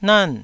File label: "nan4", taiwanese syllables